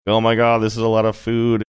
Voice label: funny